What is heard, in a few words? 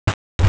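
"er duo", Mandarin Chinese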